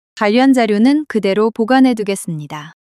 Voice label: neutral